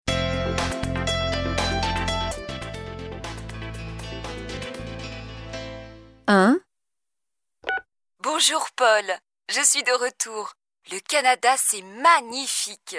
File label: French